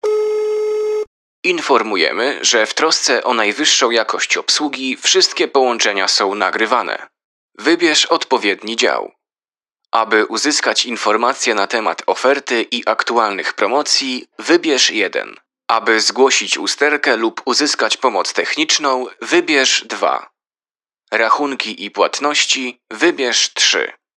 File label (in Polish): ivr